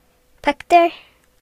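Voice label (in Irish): "picture", Irish; gcompris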